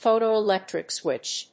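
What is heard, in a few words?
"Photoelectric switch", English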